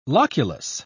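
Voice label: "loculus", English, British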